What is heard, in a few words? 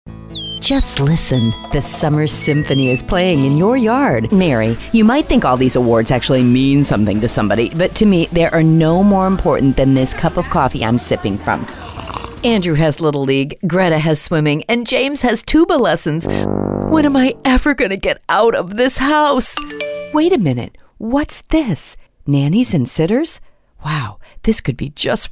Commercial VO